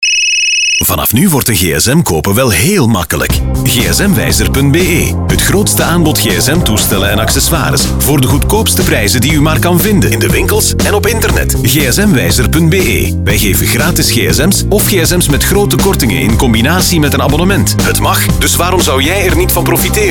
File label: commercial; gsmwijzer